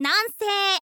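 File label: "nansei", Japanese, girl; voice; info girl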